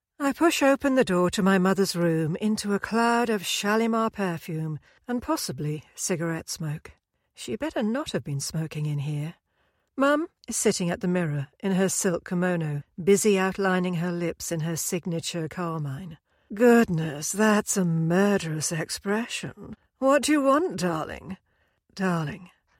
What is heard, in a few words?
audiobook